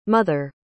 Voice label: "mother" en us